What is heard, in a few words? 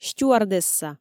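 "стюардесса", Komi, female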